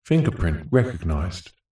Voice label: science fiction system voice male says finger print recognised